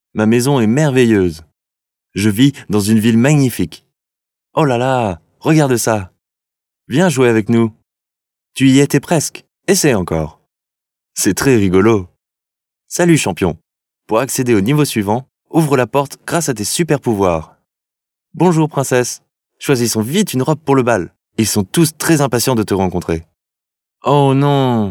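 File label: French male children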